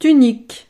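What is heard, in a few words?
"tunique", French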